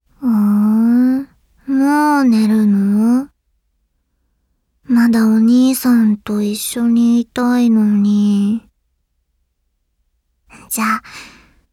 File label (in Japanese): シチュエーション ボイス; ユキさん